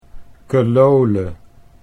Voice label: "kelole", Dutch

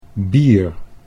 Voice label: "bier", Dutch

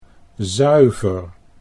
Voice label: "zuiver", Dutch

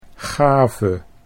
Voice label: "gave", Dutch

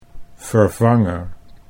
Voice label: "vervanger" Dutch

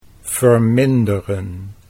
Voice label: "verminderen", Dutch

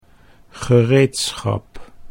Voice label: "gereedschap", Dutch

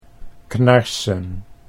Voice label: "knarsen", Dutch

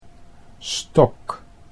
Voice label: "stok", Dutch

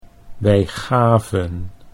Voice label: wij gaven, Dutch

